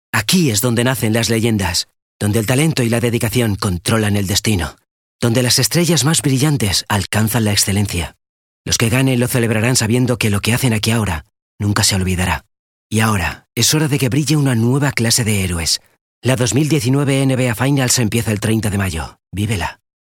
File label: spanish castilian corporate